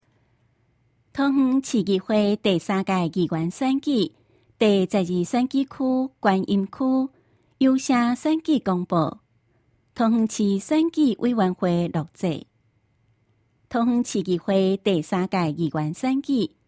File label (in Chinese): 前言, 台語; 桃園市第3屆議員選舉第12選舉區(觀音區); 市議員; 有聲公報